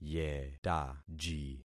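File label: "yetaji", concatenated syllables; Affixing experiment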